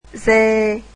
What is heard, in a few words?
vietnamese alphabet d